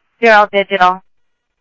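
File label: Sheriff East County, scanner audio; MCSO - EAST; South Scottsdale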